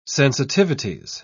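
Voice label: "sensitivities", British English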